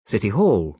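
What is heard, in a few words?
"city hall", British English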